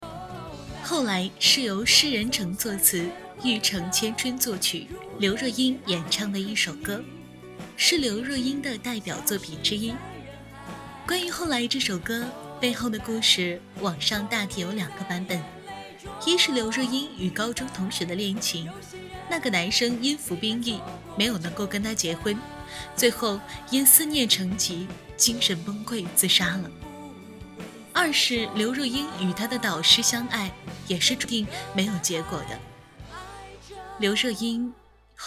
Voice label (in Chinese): 短视频音乐解说